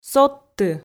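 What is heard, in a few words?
"содты", Komi, female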